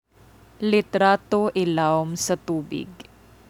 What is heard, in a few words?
Cebuano; underwater photos - litrato ilawm sa tubig